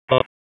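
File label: Police Spec Ops Tac, radio; wake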